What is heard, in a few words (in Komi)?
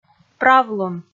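"правлун" Komi, female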